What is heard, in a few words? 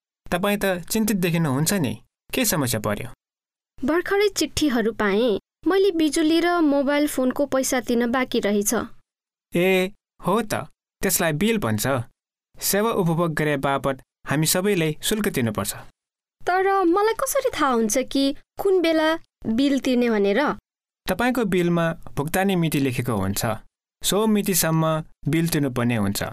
paying bills nepalese